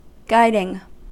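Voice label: "guiding" US English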